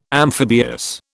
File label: "amphibious", British